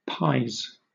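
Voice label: "pies", British English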